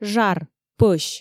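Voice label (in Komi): "жар, пӧсь", Komi, female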